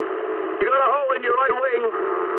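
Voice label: English right wing mood med; speech; War Thunder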